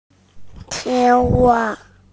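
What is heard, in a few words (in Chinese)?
"qing1wa1", Chinese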